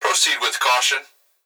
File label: Emergency Human Male Voice Police Dispatch Proceed With Caution; emergency effects